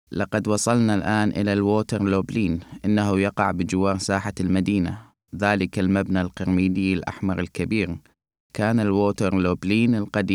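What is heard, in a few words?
arabic male e-learning; voice demos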